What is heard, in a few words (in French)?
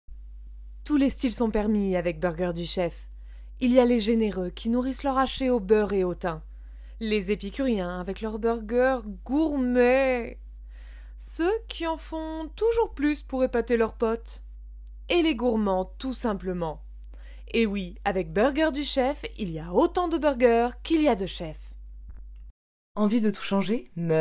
Démo voix Commerciale; voice; french